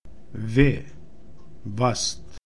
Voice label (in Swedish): Vast, Romska alfabetet